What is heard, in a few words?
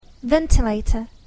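"ventilator", English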